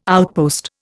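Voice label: "outpost", Indian English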